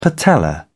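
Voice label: "patella", British English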